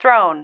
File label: "thrown", female